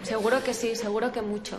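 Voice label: Voice Acting es Female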